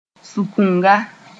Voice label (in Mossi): "sũkunga", Moore; hut